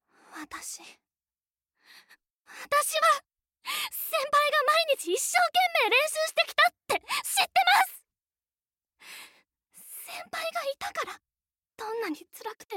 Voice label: セリフ 女子高生

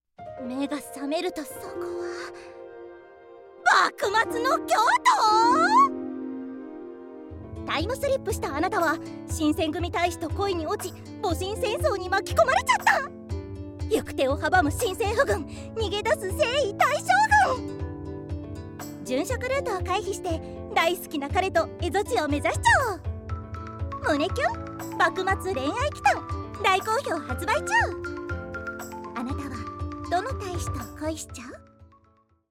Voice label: NAサンプル

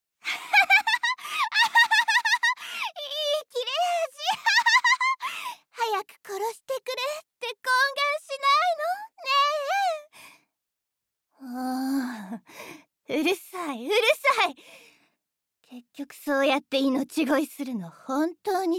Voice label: 20代狂気な女性

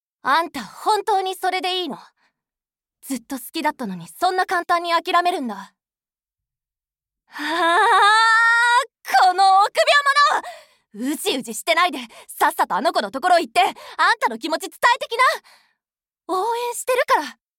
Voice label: 17歳親友

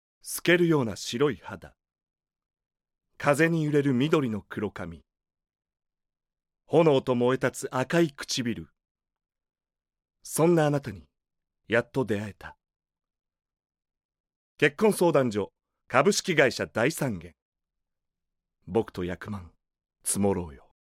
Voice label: ナレーション

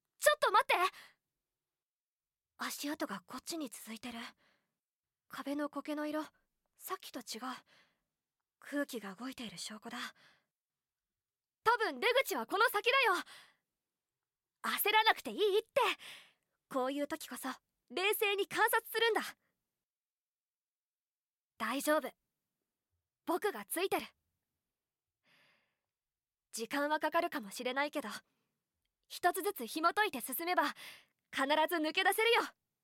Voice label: セリフ 聡い少年

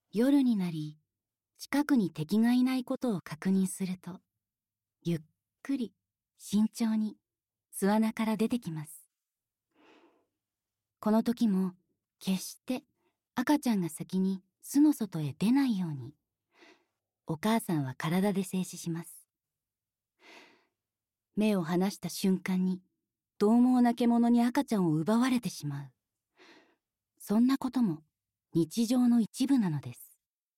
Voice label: ナレーション

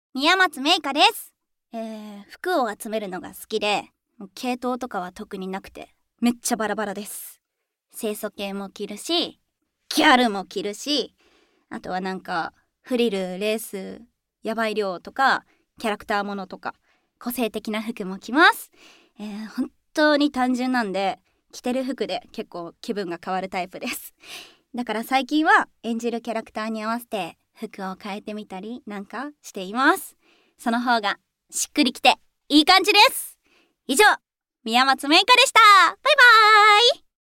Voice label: フリートーク

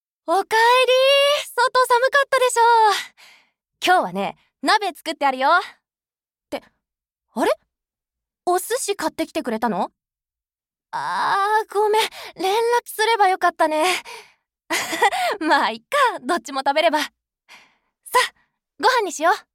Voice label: 25歳新婚

